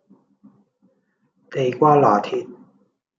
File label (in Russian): Голоса, Гонконгский